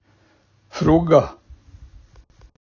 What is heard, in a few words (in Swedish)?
"frogga", daladialekt; gagnsmål